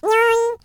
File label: voiceclip happy